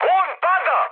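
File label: Serbian aircraft destroyed mood high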